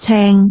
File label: "ceng6", cantone